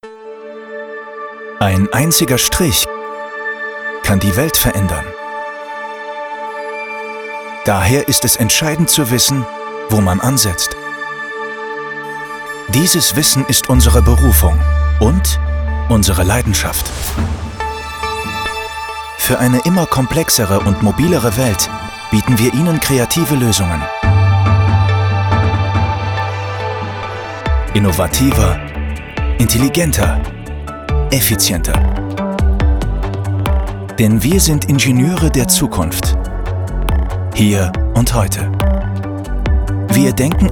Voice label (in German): imagefilm; schulzgroup; mobrents